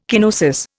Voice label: "kenosis", Indian